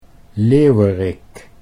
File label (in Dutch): "leeuwerik", Dutch